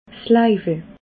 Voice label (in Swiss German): "laiwel", alsace